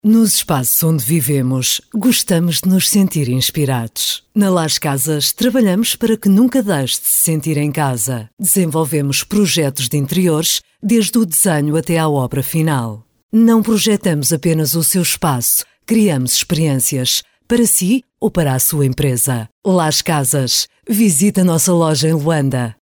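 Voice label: Portuguese, female, narration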